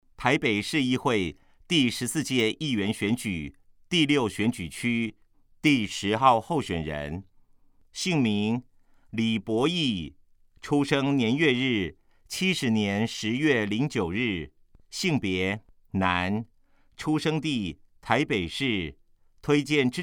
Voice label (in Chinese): 國語; 臺北市; 有聲公報; 市議員; 臺北市議會第14屆議員第6選舉區 (大安、文山區)候選人介紹